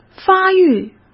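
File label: "发育", Mandarin Chinese; hsk; chinese-flashcards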